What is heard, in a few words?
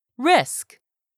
"risk", English; 3000 words